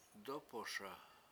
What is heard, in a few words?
"dOpoSa" burning, Washo